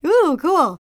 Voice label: FEM. SPOKEN; Spectrasonics Vocal Planet